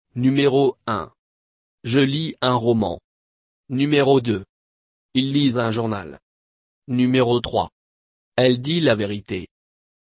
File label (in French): Dictee Housework